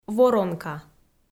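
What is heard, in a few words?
"воронка", Komi, female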